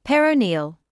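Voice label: "peroneal", British English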